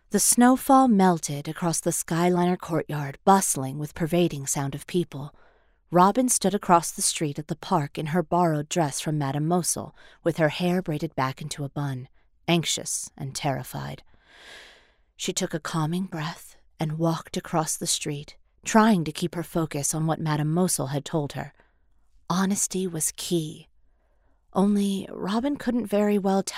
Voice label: Narration

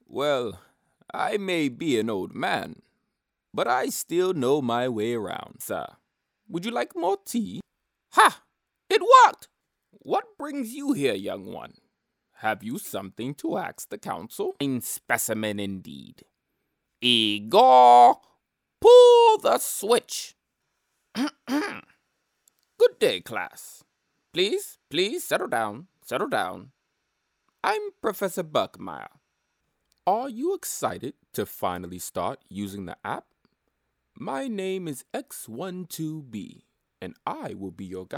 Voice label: Whimsical Character

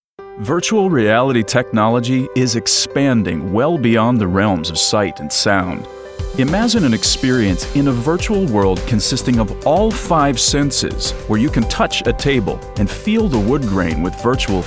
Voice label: Documentary Virtual Reality

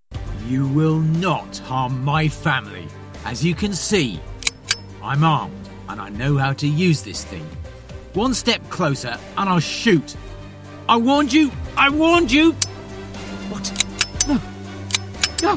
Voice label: Gaming Character Voices

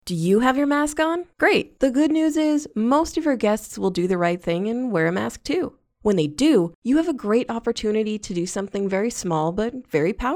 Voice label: explainer

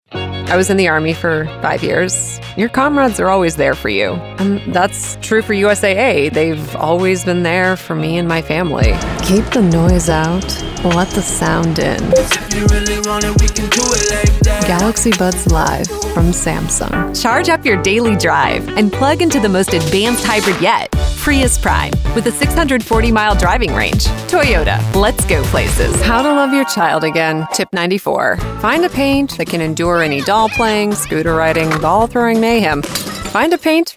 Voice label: Commercial

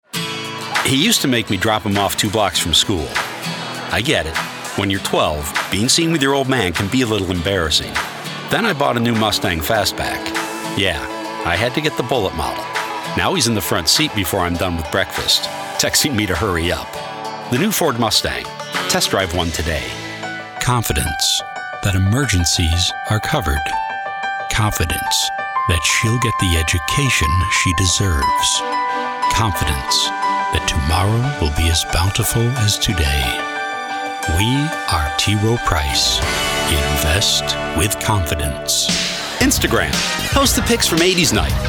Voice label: Commercial, Voice Over

